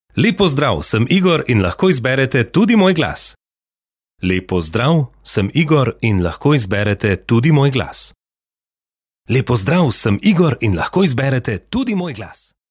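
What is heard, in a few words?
Slovenian, male; Professionelle Sprecher und Sprecherinnen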